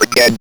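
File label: ROBVOX, robot voice; E-Lab; X Static Goldmine 1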